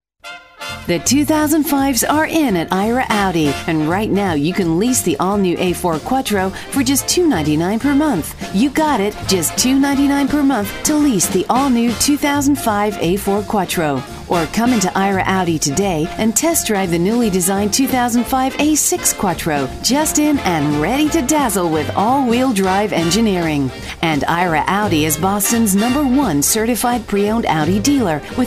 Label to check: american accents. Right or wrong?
Right